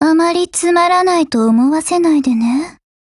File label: HELLO, JP; GF Alarm; Mk48